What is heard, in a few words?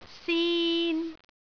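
"sin3", Cantonese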